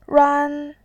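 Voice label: "ran", Mandarin Chinese, first tone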